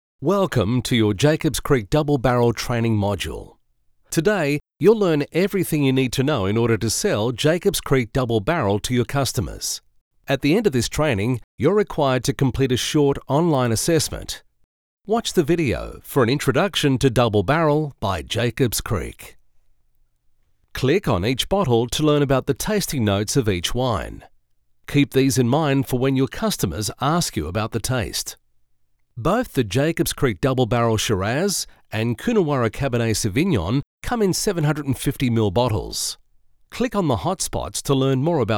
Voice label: Podcasting